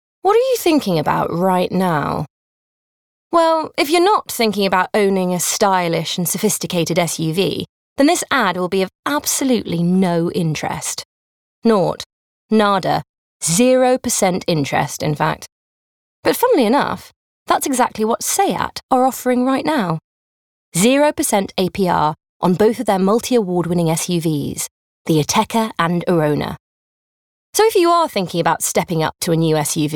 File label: Commerical; Seat